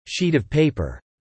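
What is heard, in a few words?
"sheet of paper" spoken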